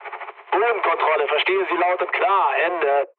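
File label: German chat, speech; War Thunder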